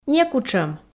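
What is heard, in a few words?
"некутшӧм", Komi, female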